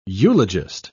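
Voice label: "eulogist", British English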